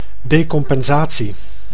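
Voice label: "decompensatie", Dutch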